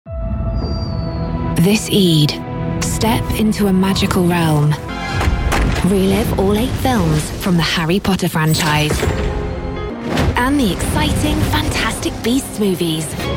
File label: promos